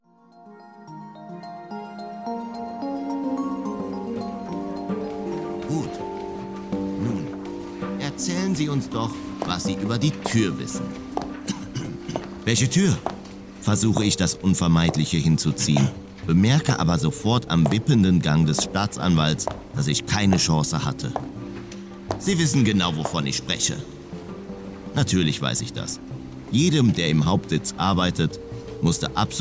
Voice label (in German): Hoerspiel